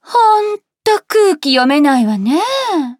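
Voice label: start, Japanese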